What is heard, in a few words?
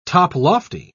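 "toplofty", British English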